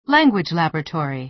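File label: "language laboratory", American English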